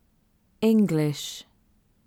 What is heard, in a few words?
English word